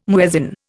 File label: "muezzin", Indian